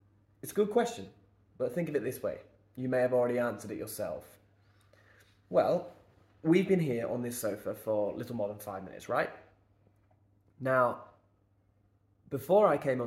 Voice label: RP